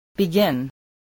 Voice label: "begin", English